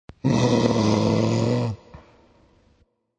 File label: Gostly Ah, A ghostly voice saying; Horror